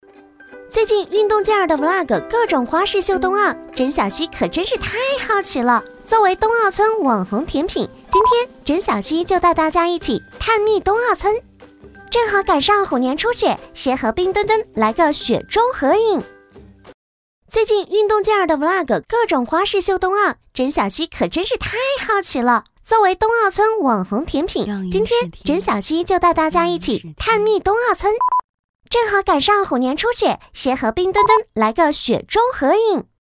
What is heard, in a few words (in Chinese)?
女 MG 童声